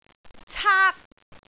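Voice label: "caak1", Cantonese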